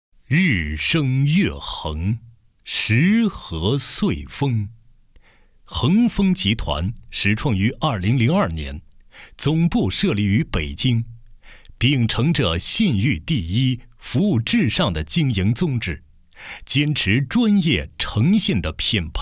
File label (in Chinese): 男 大气宣传; 深金珠宝